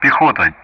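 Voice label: Speech Sound Russian; Common Player Target infantry; DCS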